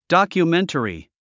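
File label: "documentary", English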